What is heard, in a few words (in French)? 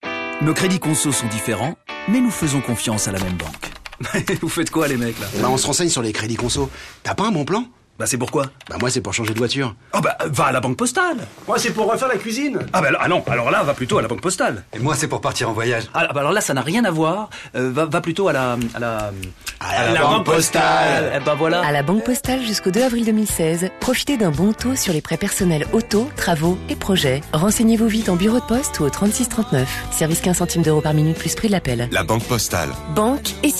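spot, spots radio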